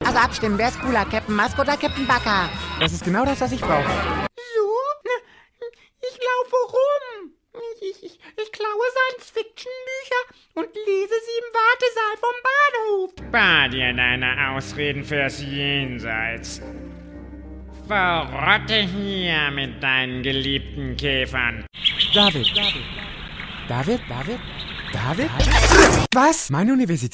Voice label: Cartoon German